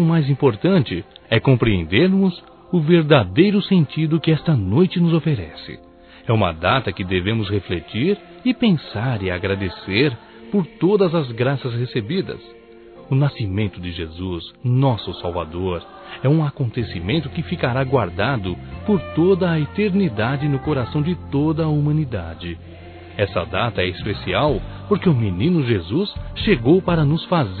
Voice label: Natal Romantico Masculino; Telemensagens; Natal e Ano novo

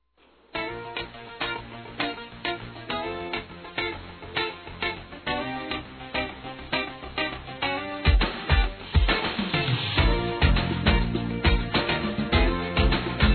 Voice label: Aniversário Avô Infantil Feminino; Telemensagens

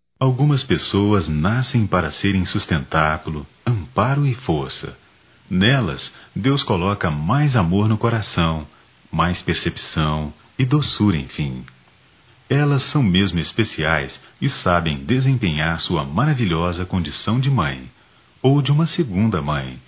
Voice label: Dia da Sogra m, Só Voz, masc; Telemensagens; Telemensagens 2017

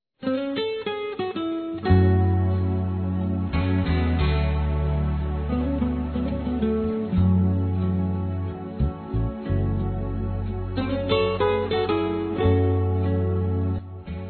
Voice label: Telemensagens Amor Fem; AMOR PROIBIDO-F

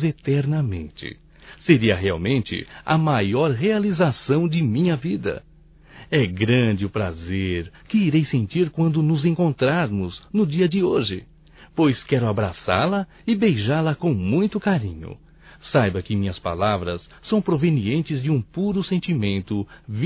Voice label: ANIV Romântico (M)

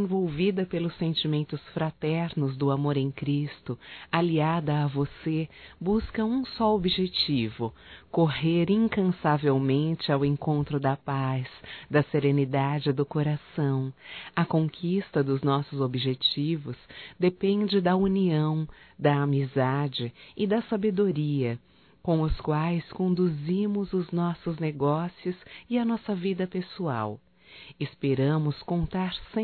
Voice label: NATAL ANO NOVO CLIENTE (F); Telemensagens 2017

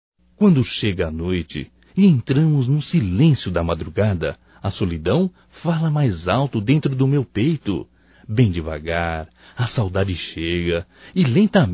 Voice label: SAUDADES ROMâNTICA, masc

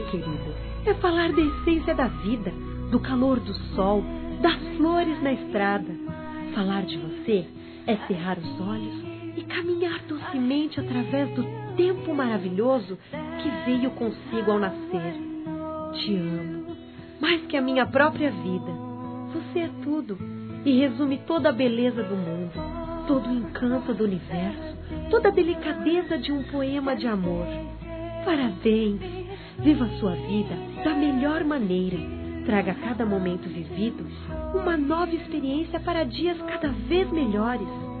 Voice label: Aniversário Neto Feminino; Aniversários; Aniv Familiar

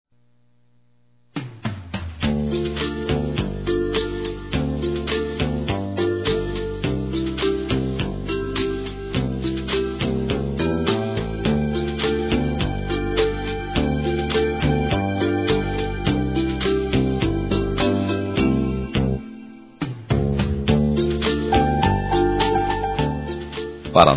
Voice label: Aniversário Cliente Masculino, Telemensagens; Empresarial; Telemensagens 2017

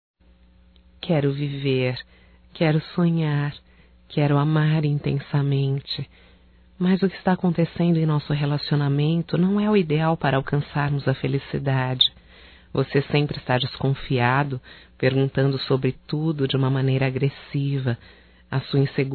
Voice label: VOCE ESTA INSEGURO (F); Românticas

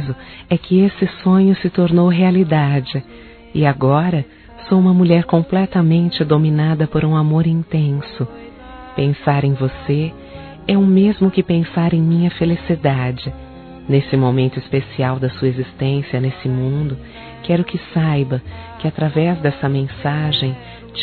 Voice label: ANIV. ROMANTICO F, Aniversários; fem

